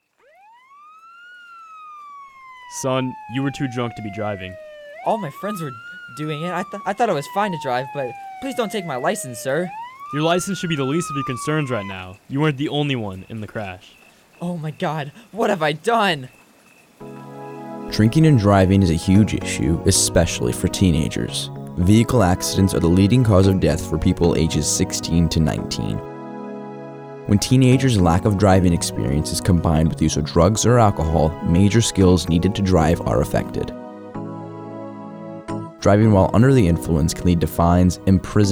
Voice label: PSA

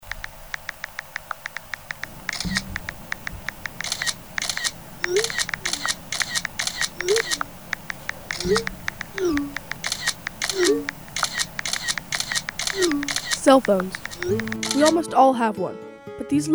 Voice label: PSA

